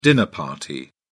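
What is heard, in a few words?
"dinner party", British English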